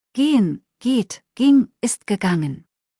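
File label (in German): "gehen" German word; verben kurzliste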